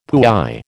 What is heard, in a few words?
"puy", American